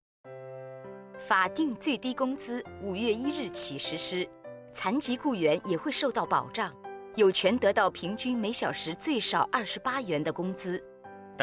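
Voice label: Mandarin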